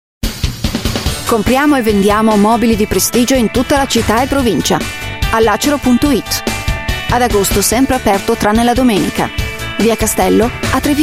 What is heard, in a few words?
Italian Female Ad; All Acero